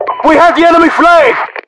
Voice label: enemy flag, localized english, UK voiceovers; mpvoice